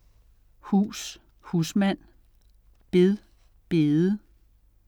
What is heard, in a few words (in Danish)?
"hus", lange vokaler; husmand; bed, bede